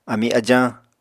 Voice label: "ami ajañ", Apatani